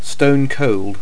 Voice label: "stone cold" RP; ipa